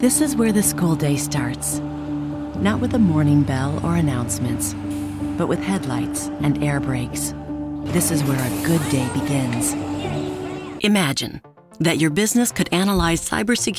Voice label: Narration

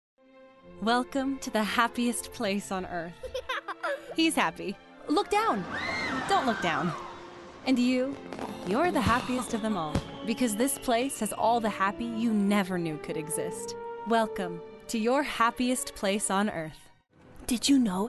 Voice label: Commercial VO

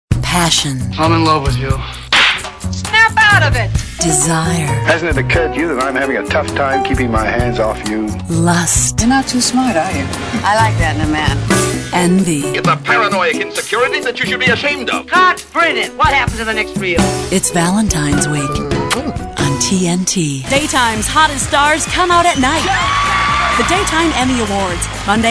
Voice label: promo

